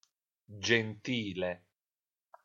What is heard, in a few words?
"Gentile", Italian